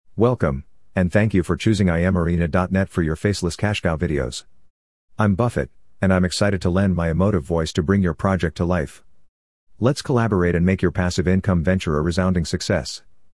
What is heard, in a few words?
US English Male